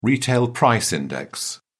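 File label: "retail price index", English, British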